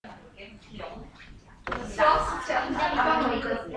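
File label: "lead", spoken word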